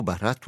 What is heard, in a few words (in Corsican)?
“barattu”, Rocca (sud)